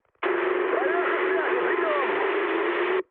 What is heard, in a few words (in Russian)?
chat, speech ru; War Thunder